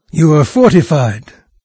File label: fortified, narrator; Fate Undiscovered Realms